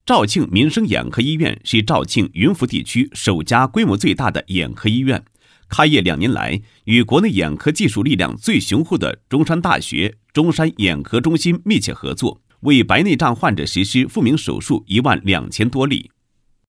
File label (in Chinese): 男 医院广告; 肇庆民生眼科医院